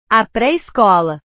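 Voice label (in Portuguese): "a pre escola", Portuguese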